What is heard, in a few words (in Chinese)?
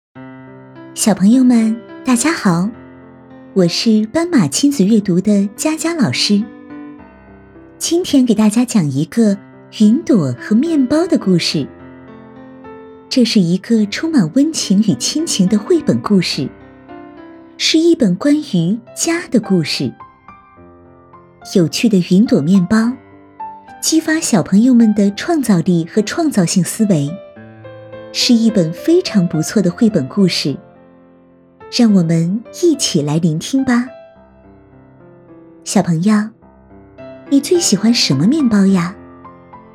儿童故事